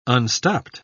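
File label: "unstopped", British English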